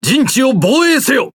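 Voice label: Speech Quick Commands Ally Base, Japanese; World Of Warships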